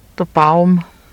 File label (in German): dr Baum, oberschwäbisch